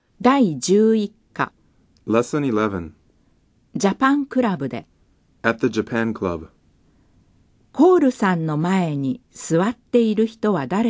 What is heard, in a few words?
Dialog